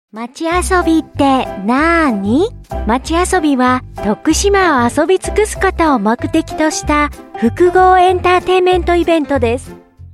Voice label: Japanese